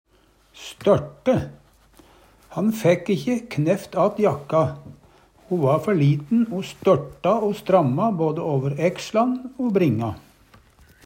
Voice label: størte, Numedalsmål

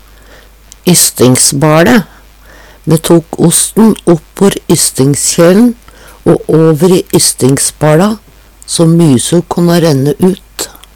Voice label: ysstingsbaLe, Numedalsmål

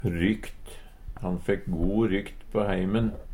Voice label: rykt, Numedalsmål

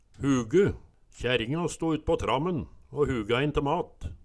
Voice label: "huge", Numedalsmål

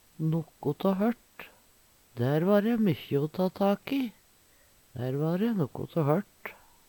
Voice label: "nokko tå hørt", Numedalsmål; Uttrykk; Veggli

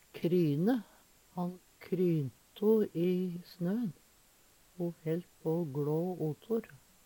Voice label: "kryne", Numedalsmål

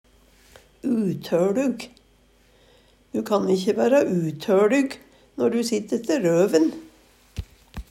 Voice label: utoLug, Numedalsmål; utøLug; Adjektiv

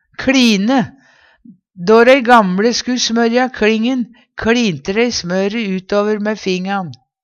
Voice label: kLine, Numedalsmål; Då dei gamLe sku' smørja kLingen, kLinte dei smøre utover mæ fingan.

